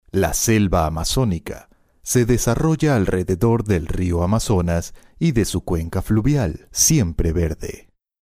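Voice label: comercial, Venezuelan Spanish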